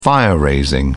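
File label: "fire-raising", British English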